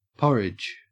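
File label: "porridge", Australian English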